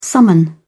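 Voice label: "summon", British English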